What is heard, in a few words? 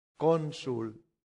"consul", latin; santillana